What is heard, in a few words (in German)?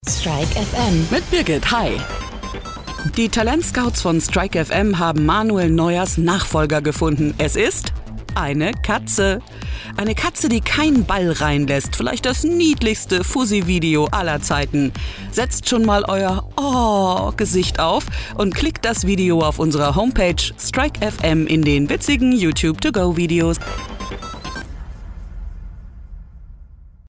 Radio